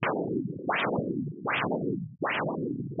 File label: Fem